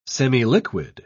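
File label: "semiliquid", English, British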